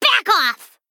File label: Female Gnome Attack